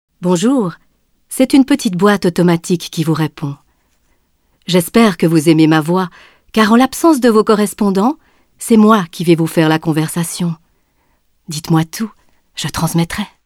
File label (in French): répondeur; casting de voix-off; taurus-studio